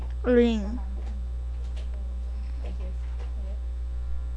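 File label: "ring", spoken word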